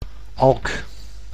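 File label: "alk", Dutch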